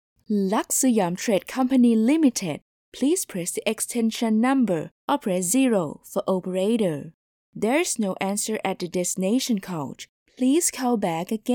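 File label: english interactive voice response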